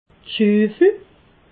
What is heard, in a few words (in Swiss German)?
"schufel", alsace